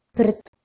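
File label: "prt", Proto-Indo-European; eastern origins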